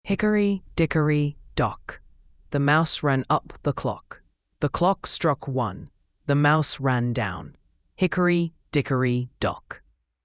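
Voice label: female US; Hickory Dickory Dock; ttsmp3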